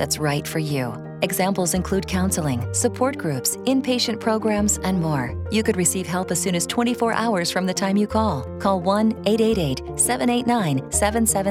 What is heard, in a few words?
Gambling Helpline Radio ENG